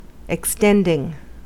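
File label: "extending", American English; pronunciations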